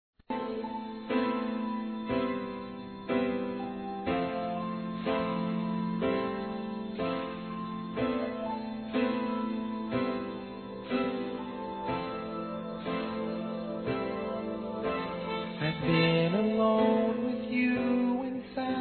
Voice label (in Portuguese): PAQUERA F; Telemensagens; Conquistas Cantadas paqueras; fem; Telemensagens 2017